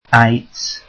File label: welsh alphabet h